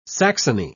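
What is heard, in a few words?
"saxony", English, British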